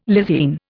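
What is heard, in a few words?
"lysine", Indian English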